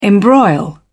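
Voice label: "embroil", British English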